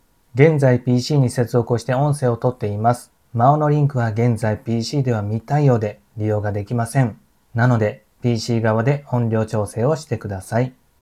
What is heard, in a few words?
maono wireless microphone